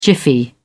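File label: "jiffy", British English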